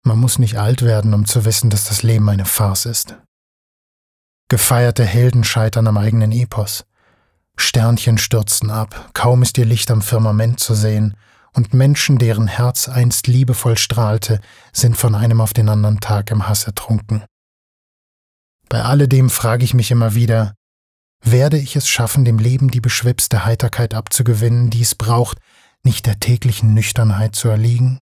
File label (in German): Weltschmerz Sanft